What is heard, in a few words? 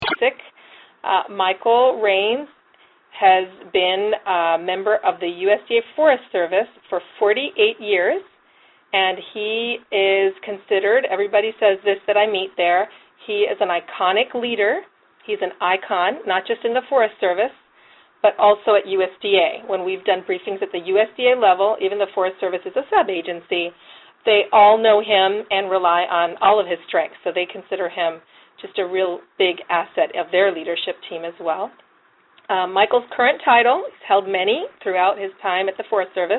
conference call